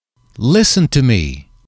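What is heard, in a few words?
listen to me, English, male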